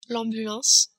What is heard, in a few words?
"ambulance", French